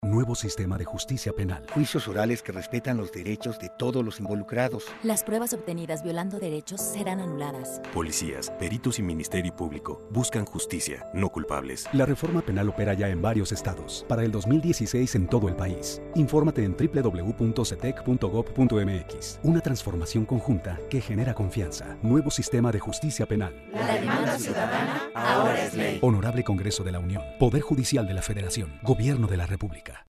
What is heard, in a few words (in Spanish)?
Spot Radio; SETEC; Juicios Orales; Pruebas nulas si violan derechos; Policía; Perito y MP